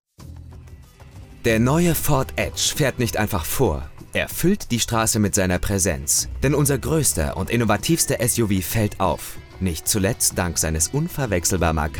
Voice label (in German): werbung auto